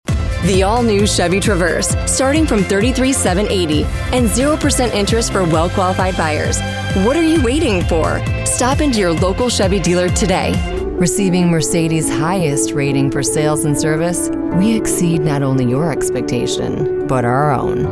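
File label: Automotive, Voiceover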